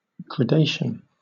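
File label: "gradation", British English; pronunciations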